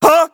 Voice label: pain; a hat in time